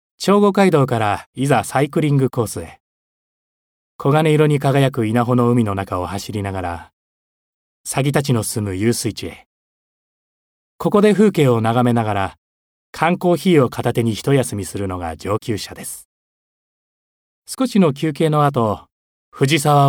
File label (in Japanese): 紀行ナレ